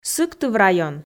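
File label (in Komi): "сыктыв район", Komi, female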